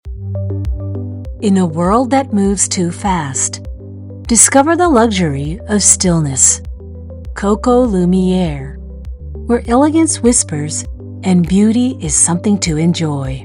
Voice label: VOICE DEMO